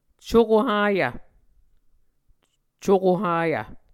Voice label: "cuko hayv", Muscogee

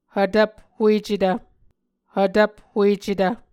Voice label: "hvtvphuecetv", Muscogee

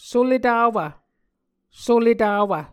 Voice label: "soletawv", Muscogee

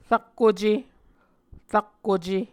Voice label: "rakkuce", Muscogee; A Dictionary of Creek/Muskogee; SIL International

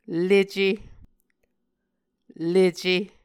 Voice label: "lece", Muscogee

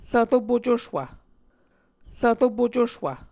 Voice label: "rvro pucuswv", Muscogee

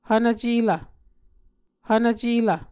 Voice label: "hvnvcelv", Muscogee

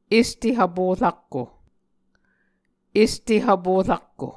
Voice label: "este hvpo rakko", Muscogee

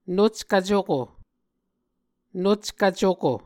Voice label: "nuckv cuko", Muscogee

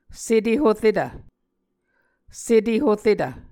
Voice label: "setehoretv", Muscogee

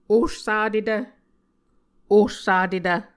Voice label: "ohsatetv", Muscogee

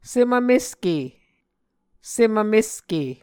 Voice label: "sem-vmeske", Muscogee

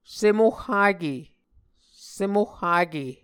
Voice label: "sem-ohhake", Muscogee; Mvskoke Words with Repeated Consonants; A Dictionary of Creek/Muskogee; SIL International

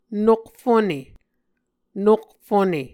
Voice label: "nokfune", Muscogee

